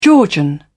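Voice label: "georgian", British English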